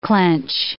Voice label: "clench", English